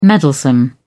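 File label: "meddlesome", English, British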